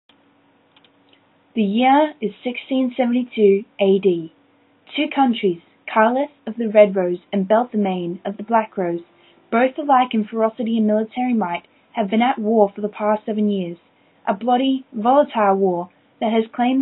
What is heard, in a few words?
Narration